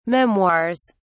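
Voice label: "memoirs", British English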